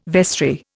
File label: "vestry", Indian